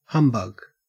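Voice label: "humbug", Australian English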